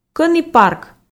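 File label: "кӧні парк", Komi, female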